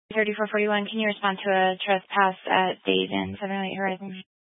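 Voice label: Police Dispatch, Scanner audio recording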